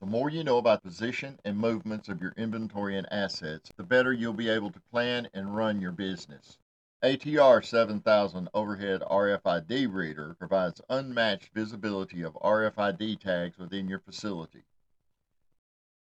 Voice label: Voiceover; Overhead RFID Reader